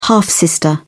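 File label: "half-sister", British English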